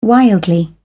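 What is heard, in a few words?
"wildly", British English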